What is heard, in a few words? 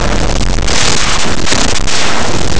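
"aspa", Spanish; laboratori fonetica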